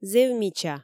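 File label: "зэв мича", Komi, female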